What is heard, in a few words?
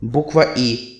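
e filled desc, Russian; alphabets; lowercase; tuxpaint-stamps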